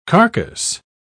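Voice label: "carcase", British English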